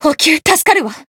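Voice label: healed, Japanese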